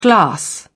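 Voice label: "glass", British English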